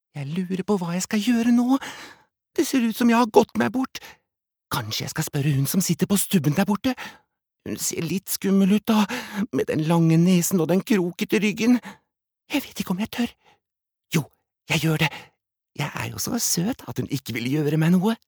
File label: no dub character